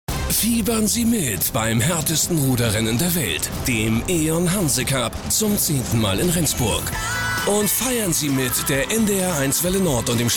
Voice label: Station Voice; NDR; LOFT VOICES